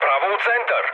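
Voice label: Serbian bomb success mood low, speech; War Thunder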